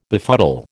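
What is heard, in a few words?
"befuddle", American English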